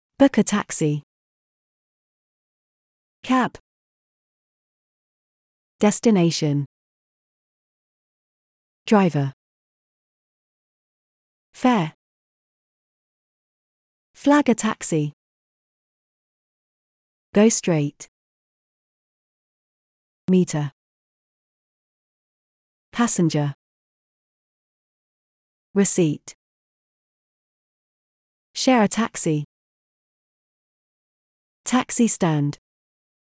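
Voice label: British; taxis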